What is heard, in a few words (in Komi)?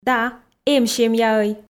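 да эм семьяӧй, Komi, female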